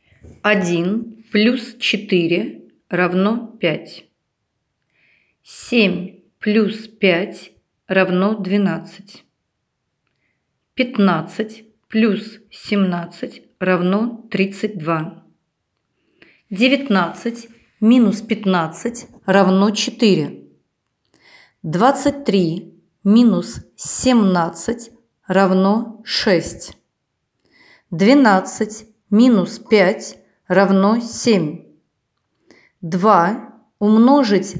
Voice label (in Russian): Диктант